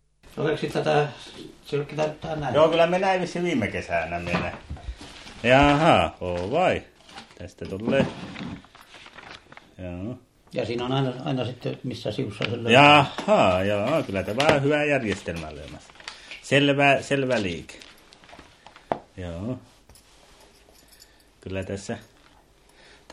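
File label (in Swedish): Övertorneå Norrbotten, dialekter; Folkminnen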